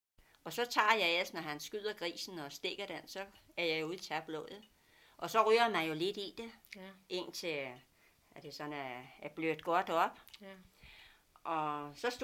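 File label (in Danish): Dialekt fra Sydvestsjælland; Kirkerup SVSj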